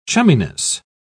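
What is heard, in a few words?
"chumminess", British English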